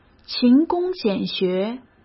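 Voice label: "勤工俭学" Mandarin Chinese; hsk; chinese-flashcards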